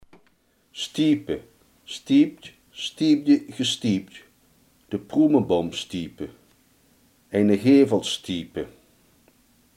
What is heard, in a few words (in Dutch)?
"steunen", Limburgs